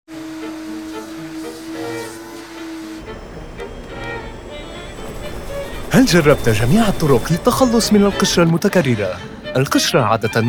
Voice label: arabic corporate videos

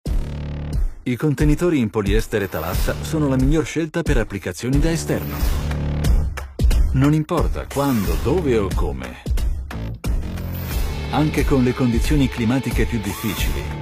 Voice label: italian corporate videos

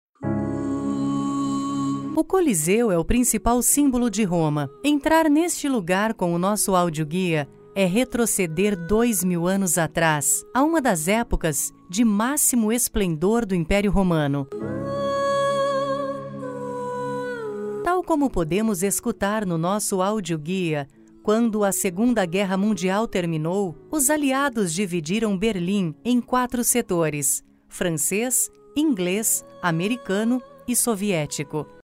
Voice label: portuguese brazilian audio guides

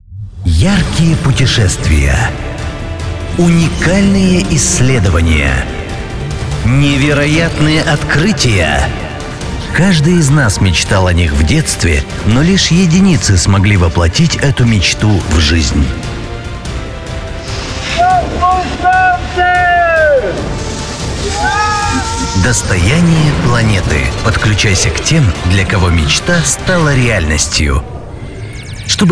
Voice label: russian promos

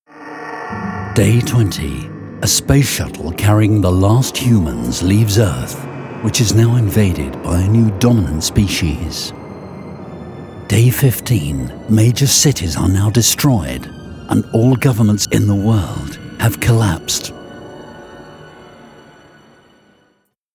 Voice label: english british video games

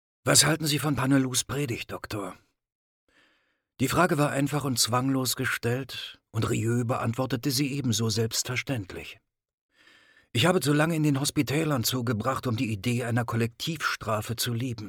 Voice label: german audiobooks; Alemão; Alemanha

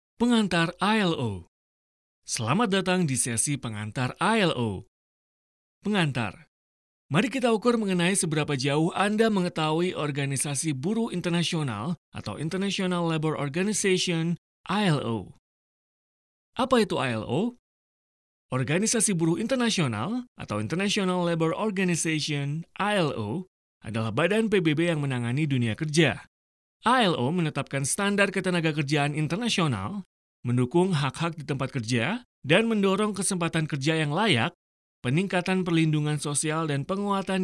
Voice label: indonesian e-learning

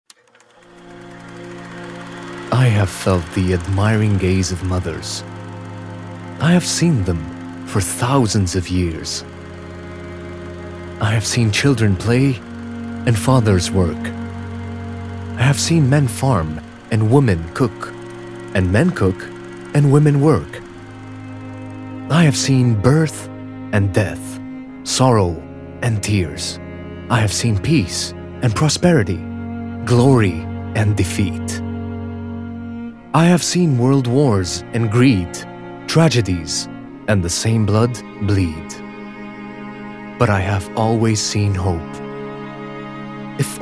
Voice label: english narration

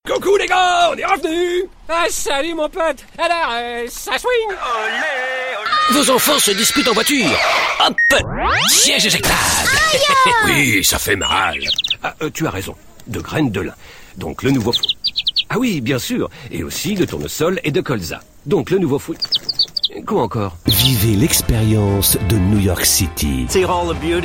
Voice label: french others

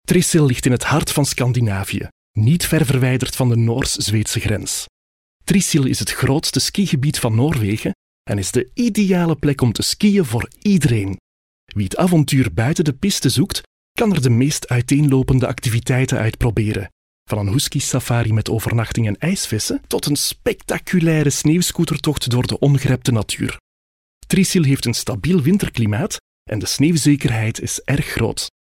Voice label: flemish documentaries

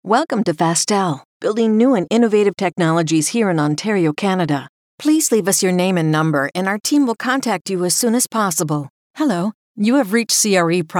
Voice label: english american ivr

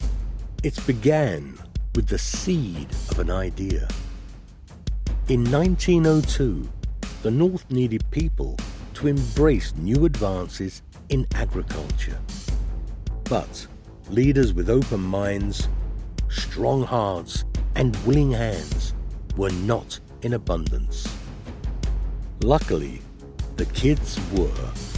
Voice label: english british corporate videos

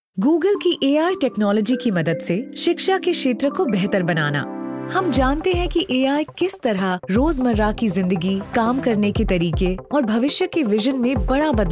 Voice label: hindi explainer videos, voice actors; professionelle indische Sprecherin

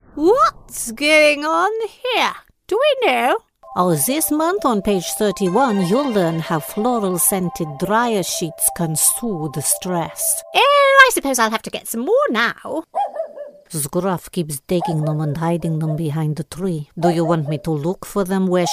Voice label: english british animation

